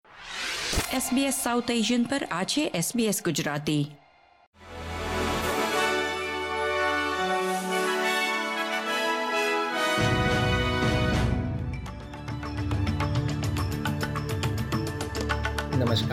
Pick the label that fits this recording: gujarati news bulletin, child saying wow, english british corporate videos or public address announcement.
gujarati news bulletin